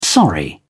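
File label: "sorry", British English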